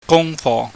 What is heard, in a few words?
"gungfo", Cantonese